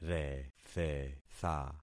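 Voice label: "rethetha", concatenated syllables; Affixing experiment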